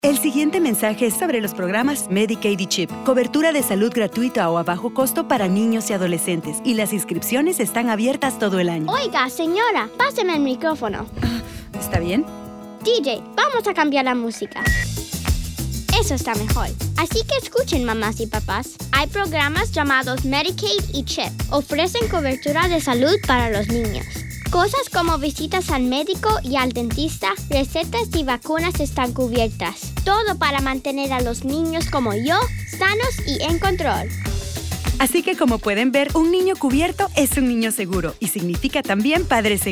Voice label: National PSA Radio Spot (Spanish)